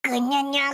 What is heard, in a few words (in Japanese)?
VO jp